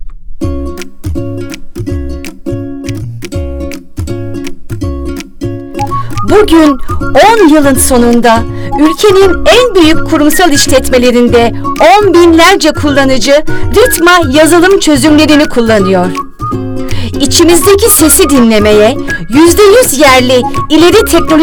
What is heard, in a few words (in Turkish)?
reklam